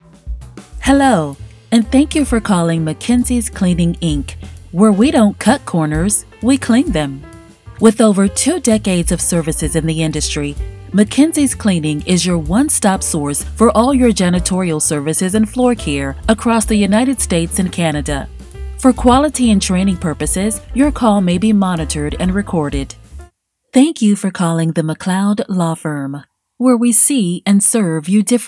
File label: phone greeting